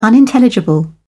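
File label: "unintelligible", British English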